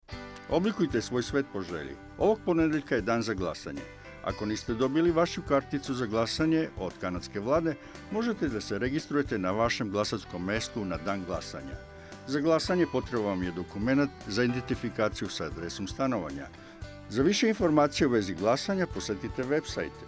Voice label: election_serbian, radio ad

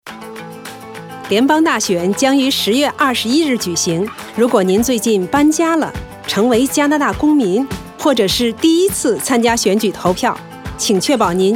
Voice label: mandarin, radio advert

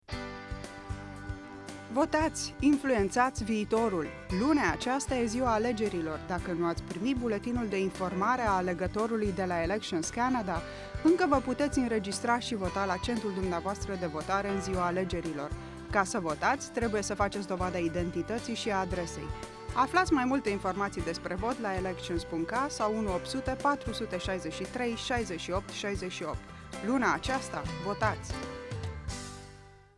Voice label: election romanian, radio ad

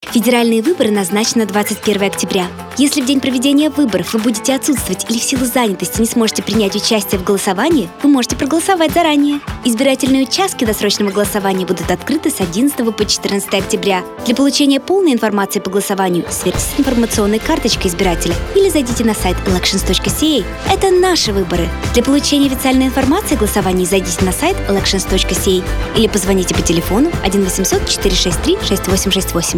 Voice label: Russian, radio advert; Early Voting Option

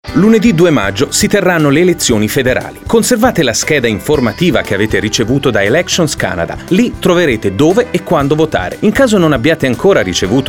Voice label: italian, radio ad

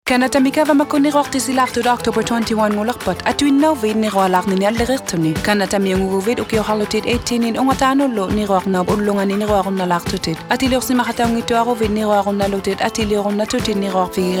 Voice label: Election Day Inuktitut

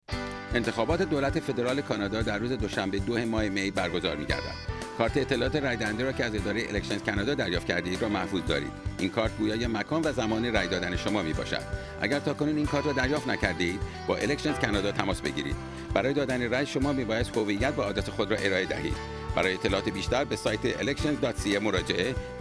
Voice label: farsi

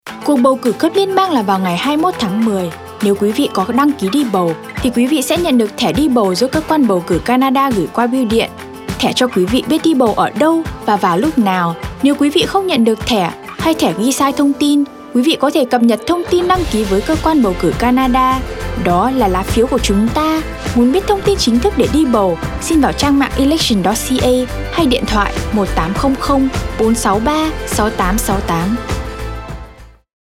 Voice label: Vietnamese, radio advert; Voter information Card

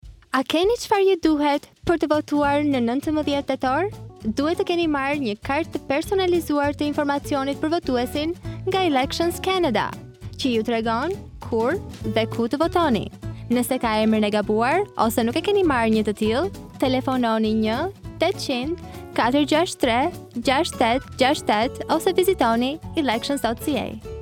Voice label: ALBANIAN

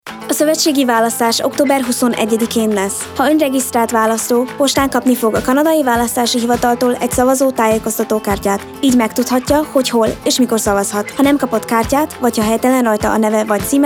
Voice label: Hungarian, radio advert; Voter information Card

